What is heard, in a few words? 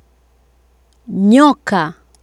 "nyoka", Swahili